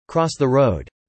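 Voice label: "cross the road" spoken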